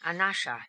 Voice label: "anasha", pronounciations; Fourth Way; Beelzebub